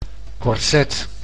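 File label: "korset", Dutch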